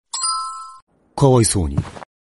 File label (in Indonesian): suara anime wibu anime notification